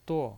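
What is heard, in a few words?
"duo" Mandarin Chinese, fourth tone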